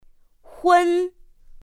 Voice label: "hūn", pinyin; pinyin2; pinyinji

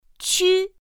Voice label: "qū", pinyin; pinyin2; pinyinji

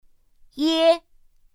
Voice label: "yē", pinyin; pinyin2; pinyinji

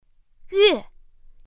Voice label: "yuè", pinyin; pinyin2; pinyinji

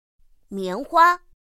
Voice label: "miánhuā", pinyin; pinyin2; pinyinji

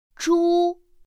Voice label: "zhū", pinyin; pinyin2; pinyinji

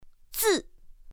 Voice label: "zì", pinyin; pinyin2; pinyinji

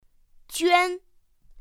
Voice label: "juān", pinyin; pinyin2; pinyinji

